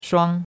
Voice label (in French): "shuang1", chinois; Cours-de-Chinois